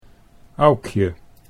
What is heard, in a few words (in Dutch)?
"Aukje", Dutch